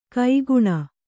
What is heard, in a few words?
"ಕೈಗುಣ", kannada